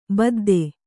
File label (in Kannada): "ಬುದ್ಧ", Kannada